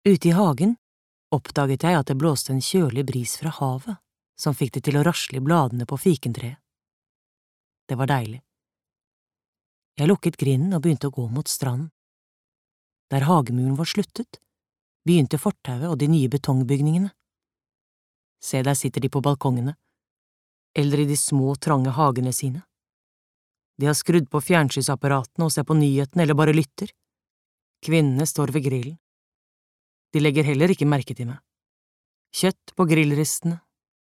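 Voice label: Norwegian audio book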